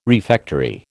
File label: "refectory", American